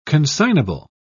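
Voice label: "consignable", English, British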